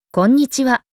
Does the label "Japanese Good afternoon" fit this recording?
Yes